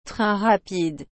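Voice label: "train rapide", French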